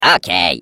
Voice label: Defective Turret finale turret return defect fail, ru